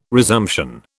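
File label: "resumption", British English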